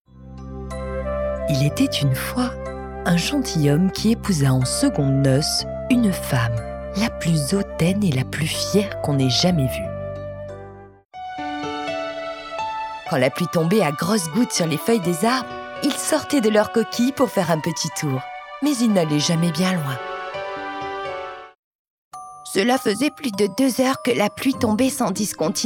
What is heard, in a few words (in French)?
Contes, voix feminine